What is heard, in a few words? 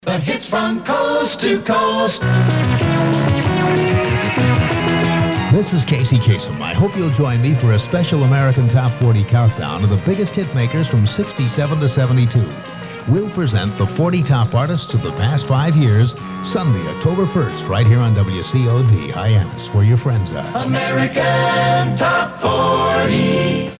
Promo